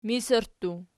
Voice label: "misirttu", Archi

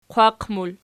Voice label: "qwaq", Archi

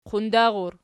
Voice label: "qundar", Archi

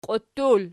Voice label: "qiuttul", Archi

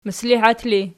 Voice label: "mislihat", Archi

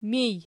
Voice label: "mij", Archi

